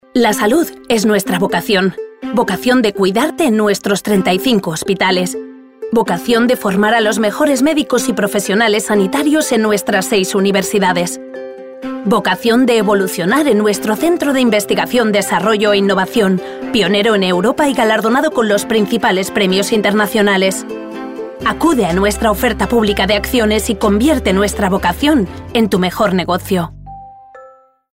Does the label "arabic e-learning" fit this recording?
No